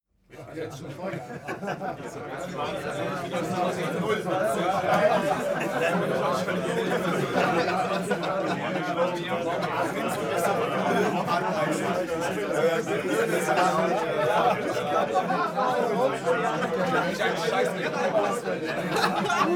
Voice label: talk happy long

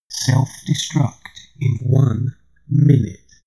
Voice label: shuttle male system status

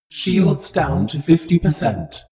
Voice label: space shuttle female status report

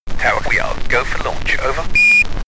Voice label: astronaut chatter preparing

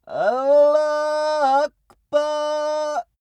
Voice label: male pray arabian

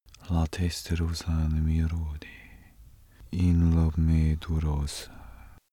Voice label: male pray

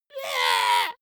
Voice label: dwarf disgusted

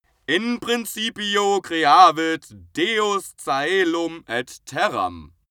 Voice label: male pray latin

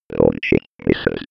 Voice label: computer voice

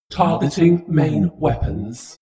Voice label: spaceship female status report

